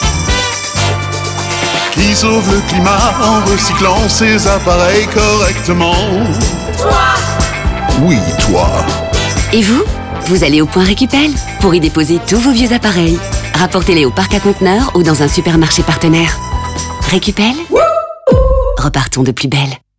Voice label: ReCycle FR RADIO; Recupel